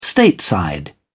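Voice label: "stateside", British English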